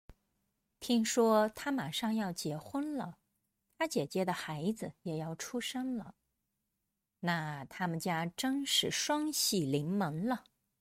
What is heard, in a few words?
denoised